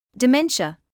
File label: "dementia", English